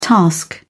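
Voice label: "task", British English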